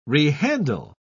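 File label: "rehandle", British English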